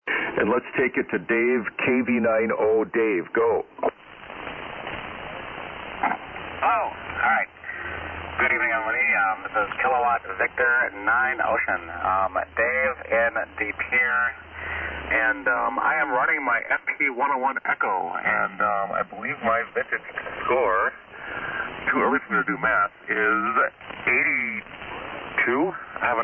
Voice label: Yaesu FT-101E; DePere Wi

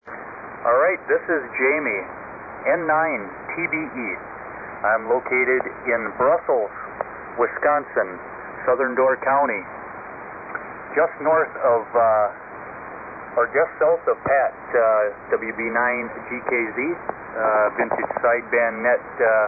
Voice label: Net Control, SSB Net; Forestville, Wi.

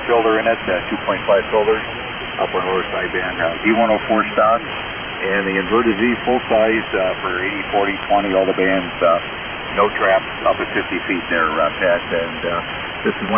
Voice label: Drake TR-4CW RIT & D-104 Mic; Willoughby, OH.

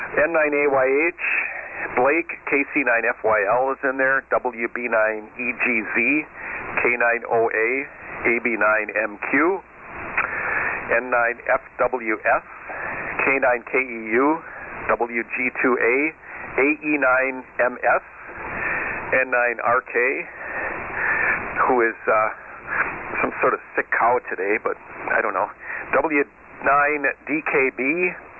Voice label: SSB Net Check Ins

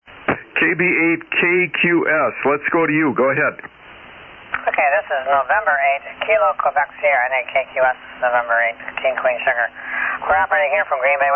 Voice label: Yaesu FT-101E; Green Bay, Wi.

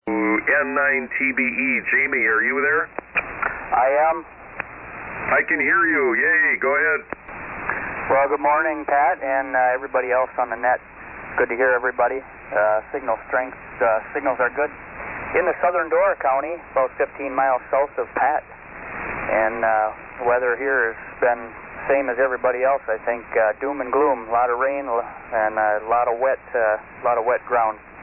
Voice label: Yaesu FT-101EE; Forestville, Wi.

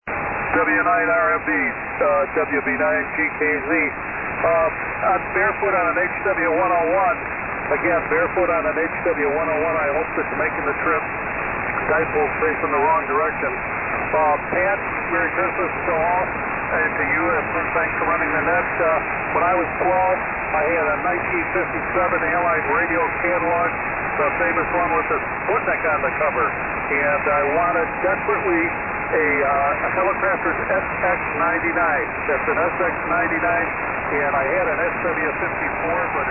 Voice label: Heathkit HW-101; Glenview,IL.

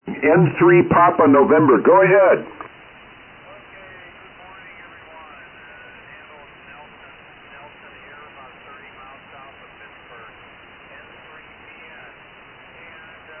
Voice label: Yaesu FT-101ZD D-104 Mic & 811A Amp; Washington, PA.

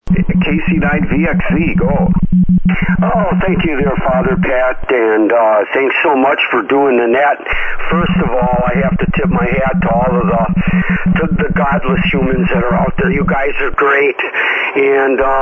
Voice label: Heathkit HW-100 D-104 Mic & AL-80B Amp; Hustisford, Wi.

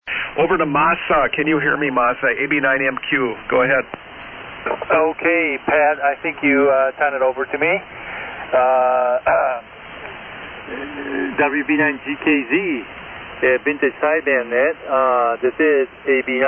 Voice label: Kenwood T520 MC-50 Mic & Kenwood TL-922A; Normal, IL.

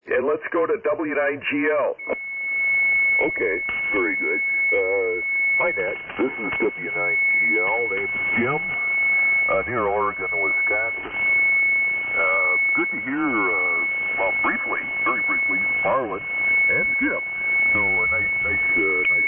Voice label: Collins KWM-2A - 30L-1 Amp & EV 674 Mic; Oregon,Wi.

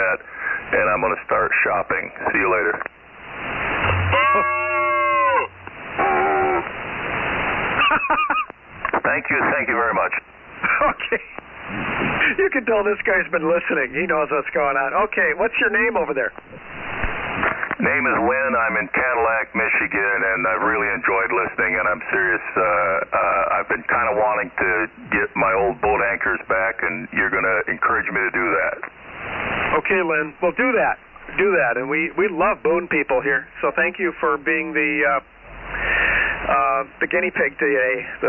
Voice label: Kenwood TS-590; Cadillac, Mi

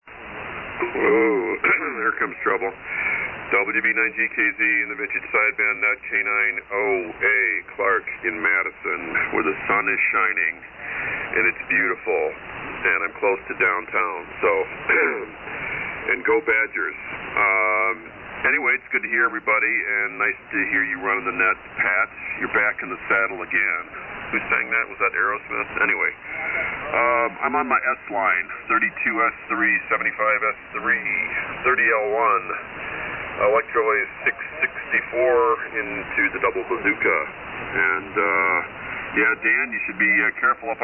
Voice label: Collins 32S-3 75S-3 & 30L-1 Amp; Madison,Wi.

